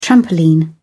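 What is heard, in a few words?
"trampoline", British English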